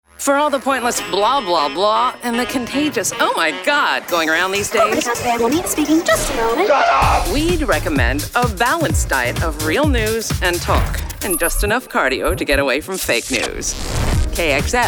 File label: Radio Imaging